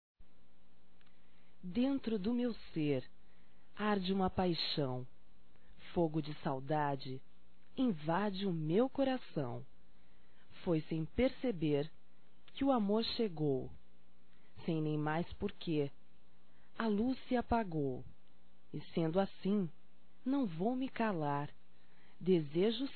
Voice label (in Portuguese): Reconciliação Poesia Feminino